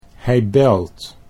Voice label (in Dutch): "hij belt", Dutch